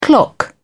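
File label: "clock in", British English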